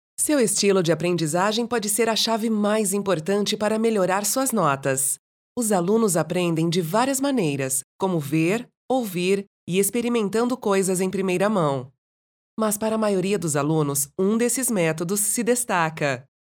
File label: Portuguese, vo